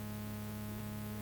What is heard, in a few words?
Speech noise